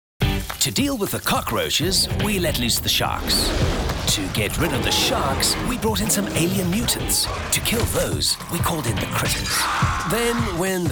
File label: ENGLISH young